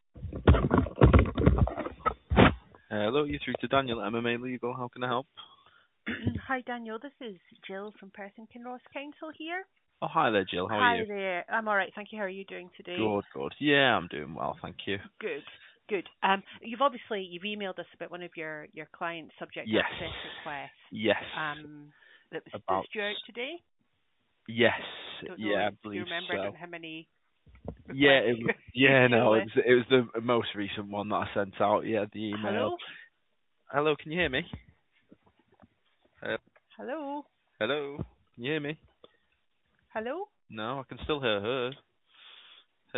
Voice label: call recording